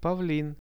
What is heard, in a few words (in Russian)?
"pavlin", Russian; павлин; birds; RUSSIAN VISUAL DICTIONARY